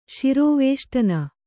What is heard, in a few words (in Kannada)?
"ಶಿರೋವೇಷ್ಟನ", Kannada